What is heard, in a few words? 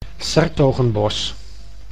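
"'s-Hertogenbosch", Dutch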